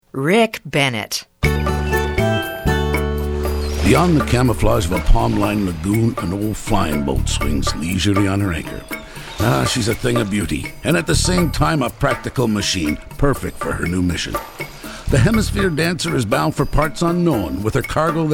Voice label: commercial male